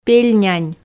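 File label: "пельнянь", Komi, female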